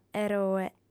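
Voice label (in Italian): "eroe", lingua sarda, female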